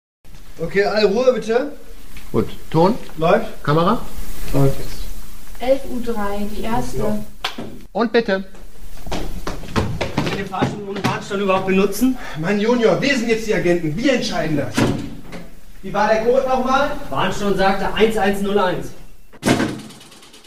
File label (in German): Reportage Filmset